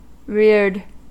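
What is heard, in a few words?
"reared", US English; pronunciations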